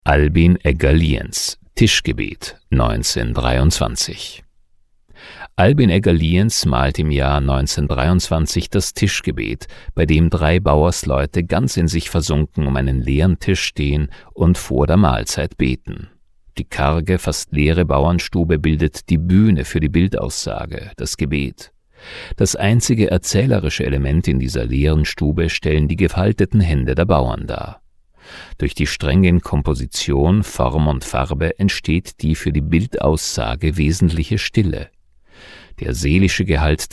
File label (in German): Audioguide DE